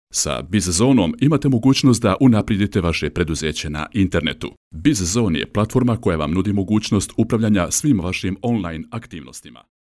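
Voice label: Bosnian, male, commercial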